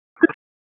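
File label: Raleigh Police North District Tactical, p25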